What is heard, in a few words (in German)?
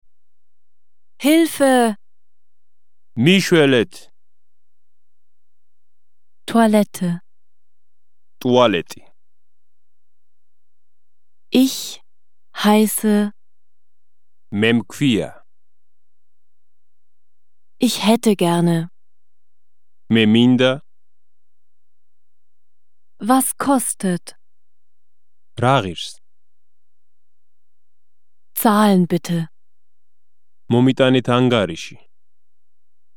georgisch